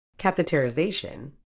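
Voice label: "catheterisation", British English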